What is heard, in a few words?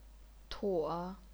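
"tuo", chinese pinyin, third tone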